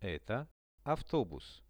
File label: eto avtobus, Russian; Это автобус.; transport; visual-dictionary